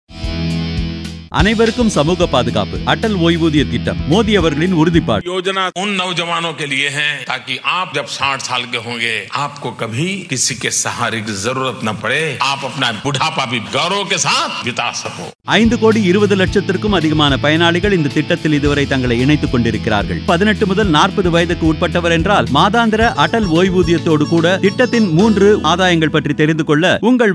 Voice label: Atal Pension Yojana Tamil